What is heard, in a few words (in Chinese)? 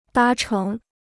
"搭乘", Chinese